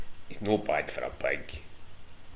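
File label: "pig", slang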